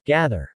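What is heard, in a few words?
"gather", English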